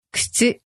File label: "kutsu", Japanese